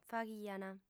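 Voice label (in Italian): "faghiant", lingua sarda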